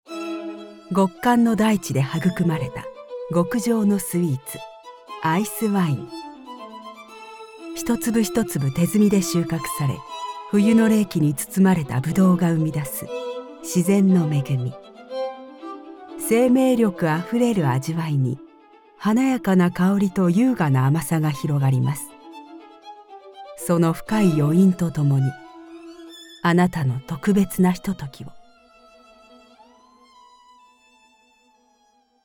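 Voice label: Documentary Elegant, Voice Over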